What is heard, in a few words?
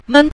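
"men", Mandarin, neutral tone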